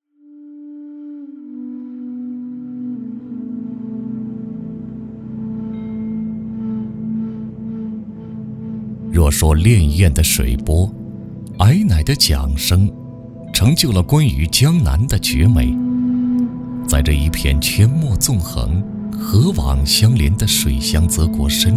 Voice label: 男 专题 悠远 深沉; 茶人朝圣; 央视老师

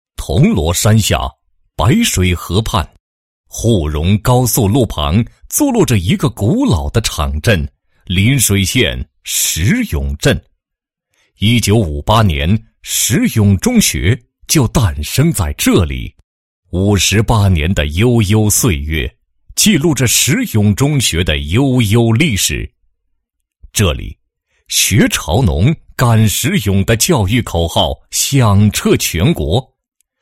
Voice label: 男 学校 专题片; 石永中学素教成果

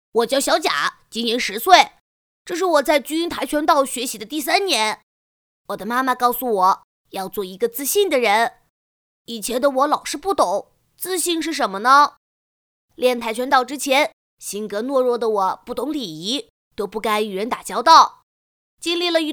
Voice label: 童声跆拳道

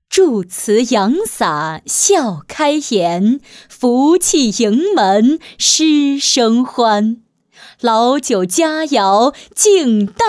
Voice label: 女 大气 古诗词; 谢师恩

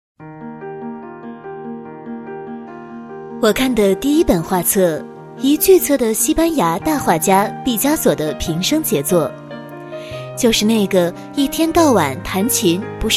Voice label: 女 专题 人物; 双语配音

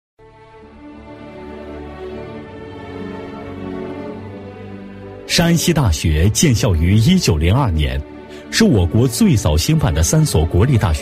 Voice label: 男 专题, 国语男声配音 大气浑厚; 山西大学

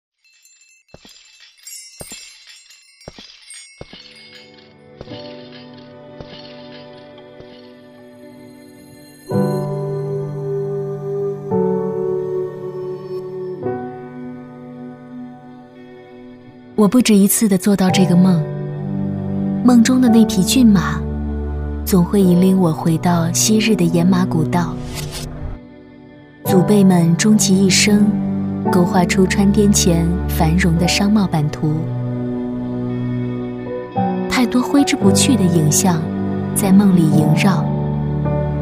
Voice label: 女 旁白 素人 自述 去播音腔; 溆浦老家

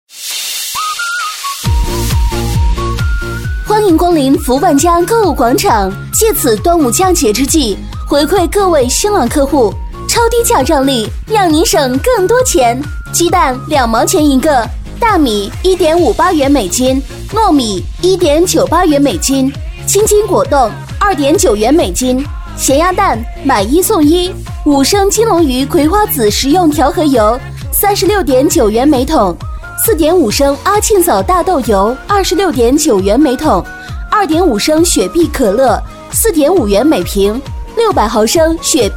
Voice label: 女 广告 激情时尚 超市, 国语女声配音

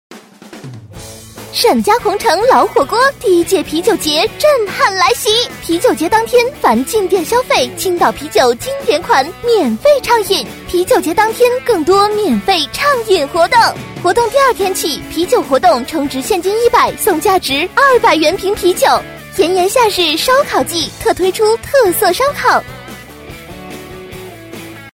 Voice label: 女,广告,年轻激情

